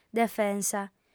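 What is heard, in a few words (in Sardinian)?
"defensa", female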